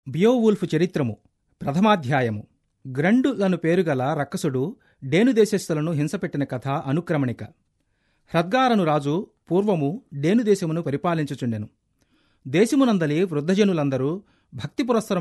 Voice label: telugu